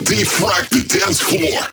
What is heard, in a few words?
Vocal Phrase Male "Defrag"; bass house; fx vocals